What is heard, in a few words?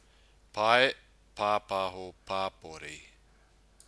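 "pae papaho papori", Māori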